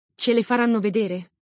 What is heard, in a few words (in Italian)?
"faranno vedere", Italian